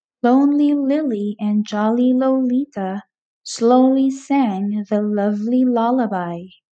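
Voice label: Tongue Twister l, pronunciation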